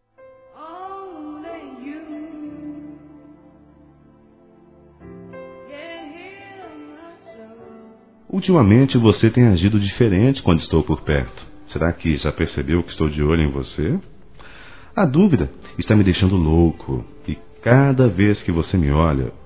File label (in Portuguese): Paquera Masculino; Telemensagens 2017